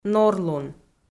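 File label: "норлун", Komi, female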